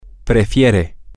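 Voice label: she prefers, Spanish, male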